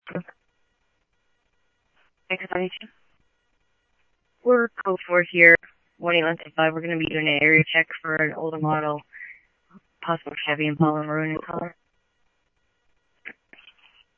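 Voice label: Simulcast PD Police Department, scanner audio trunk; Mesa Simulcast; MESA PD 2 Centrl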